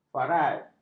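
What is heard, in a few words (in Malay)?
"farad", Malay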